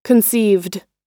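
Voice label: "conceived", US English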